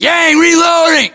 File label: inform reloading, US; battlechatter; english